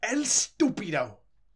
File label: start, voices angry pursuit; DanisRace